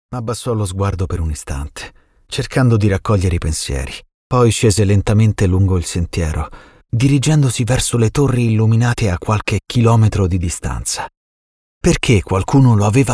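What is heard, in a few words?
Italian Male Romance Audiobook Narration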